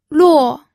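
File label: "luo", fourth tone, pinyin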